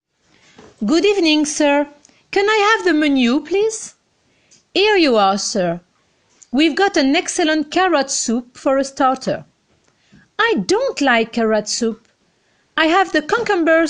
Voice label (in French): dialogue anglais